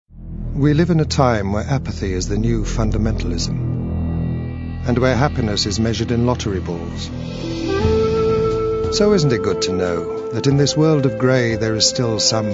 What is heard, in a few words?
Commercial